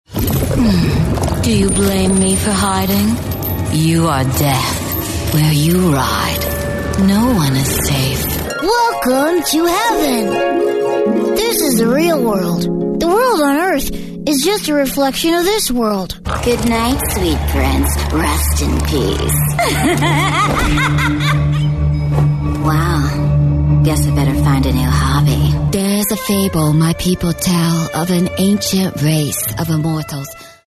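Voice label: US English, female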